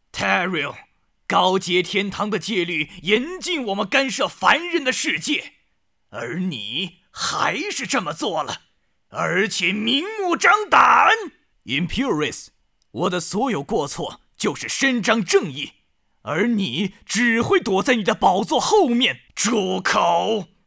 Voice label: 游戏 配音

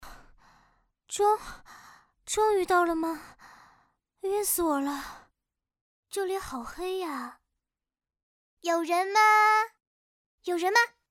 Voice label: 萝莉正太

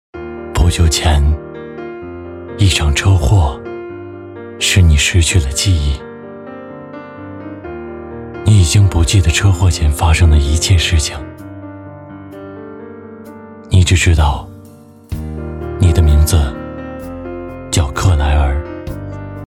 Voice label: 电影开头配音

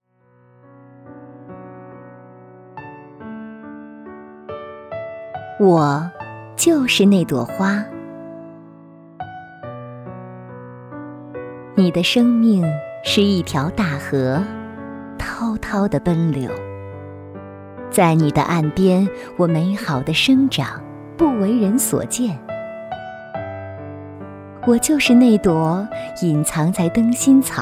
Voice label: 情感诗歌

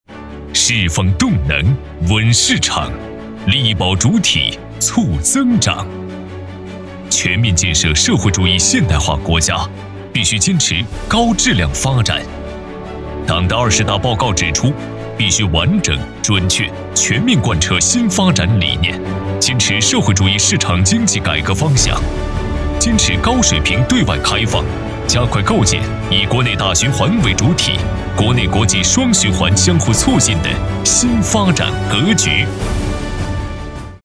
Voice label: 专题汇报类; 江苏省市场监管局年度汇报

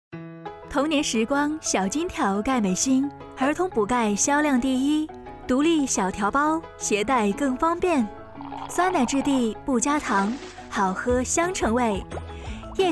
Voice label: 广告母婴

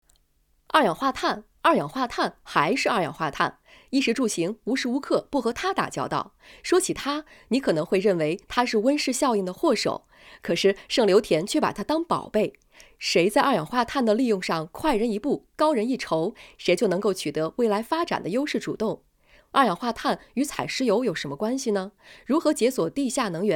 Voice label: 配音

